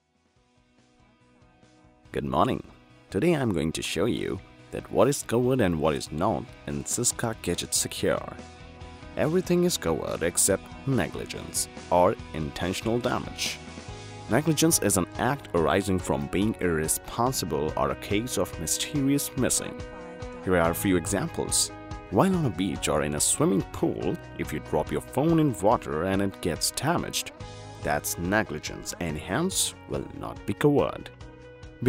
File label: English Deep Male Presenation